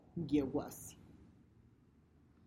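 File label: wild mint, Tlacochahuaya Zapotec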